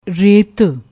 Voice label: "rait", Punjabi